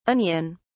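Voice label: "onion(s)", British English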